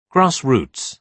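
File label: "grass roots", British English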